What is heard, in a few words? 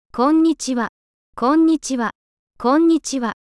Hello Japanese